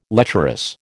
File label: "lecherous", American